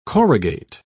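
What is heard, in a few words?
"corrugate", British English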